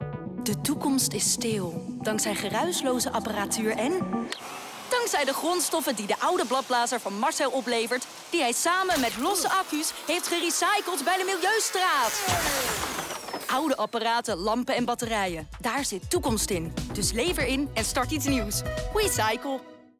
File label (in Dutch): bladblazer SPOT; WECYCLE